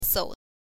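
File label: "sou", Mandarin Chinese, neutral tone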